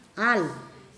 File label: "road", Pingelapese